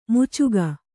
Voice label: "ಮೋಚಿಕೆ", Kannada